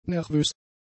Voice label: "nervös", German; adjectives